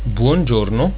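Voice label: "buongiorno", italiaans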